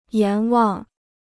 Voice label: "阎王", Chinese

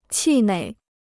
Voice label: "气馁", Chinese

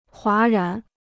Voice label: "哗然", Chinese

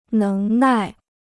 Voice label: "能耐", Chinese

